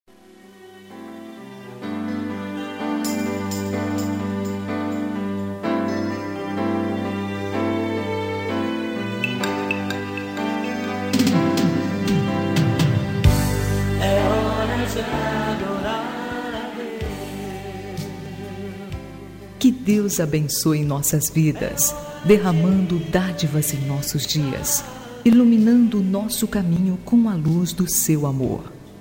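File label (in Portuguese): Evangélica Aniversário de Amizade, female, telemensagens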